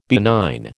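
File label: "benign", American English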